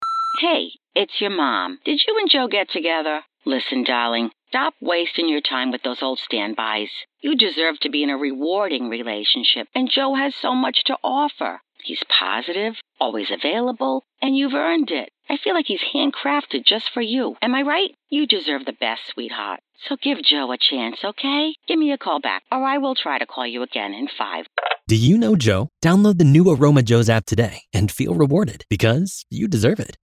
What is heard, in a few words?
radio spot; aroma joes app